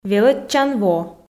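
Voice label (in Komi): "велӧдчан во", Komi, female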